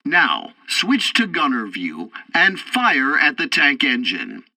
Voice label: tutorial tank arcade second target fire, english; War Thunder